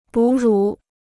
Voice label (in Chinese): "哺乳", Chinese